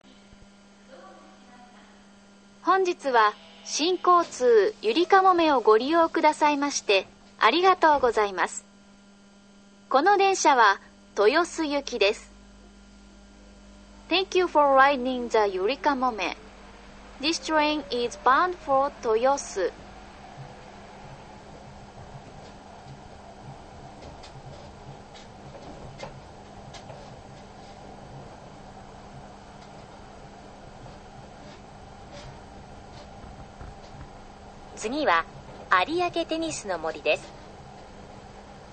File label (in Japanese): 有明到着前, 車内放送; 有明発車後; yurikamome